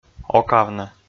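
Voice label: "окавны", Komi-Zyrian, male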